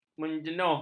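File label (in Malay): "menjenuh", Malay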